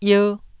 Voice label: "ier1", taiwanese syllables